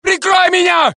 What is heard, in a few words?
Russian; mohaa